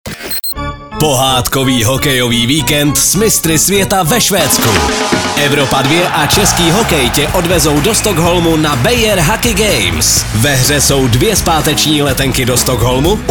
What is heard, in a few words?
promo